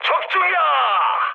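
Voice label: Korean player killed enemy mood high, speech; War Thunder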